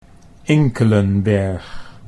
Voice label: "Inclenberg", Dutch

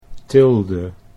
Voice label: "tilde", Dutch

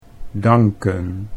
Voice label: "danken", Dutch

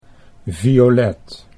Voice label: "Violet", Dutch

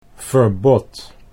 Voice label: "verbod", Dutch

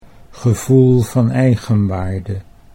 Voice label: "gevoel van eigenwaarde", Dutch

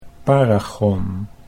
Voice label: "paragon", Dutch

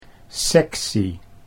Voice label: "sectie", Dutch

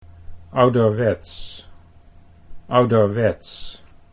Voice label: "ouderwets", Dutch

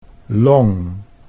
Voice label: "long", Dutch

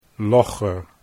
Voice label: "logger", Dutch

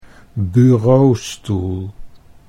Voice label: "bureaustoel", Dutch

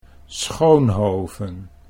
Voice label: "Schoonhoven", Dutch

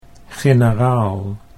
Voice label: "generaal", Dutch

